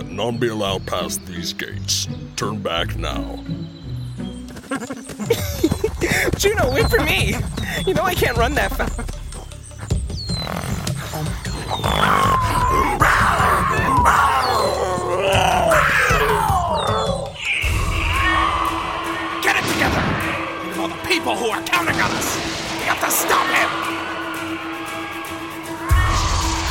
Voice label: Creature; Otterboy; Creature Demo